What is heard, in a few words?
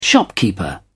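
"shopkeeper", British English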